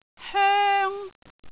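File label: "hoeng1", Cantonese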